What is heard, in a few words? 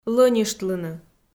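"лӧньыштлыны", Komi, female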